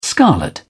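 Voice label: "scarlet", British English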